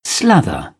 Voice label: "slather", British English